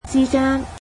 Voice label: between, cantonese